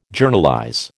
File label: "Journalize", American